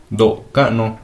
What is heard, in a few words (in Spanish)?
Tenate grande, Mixteco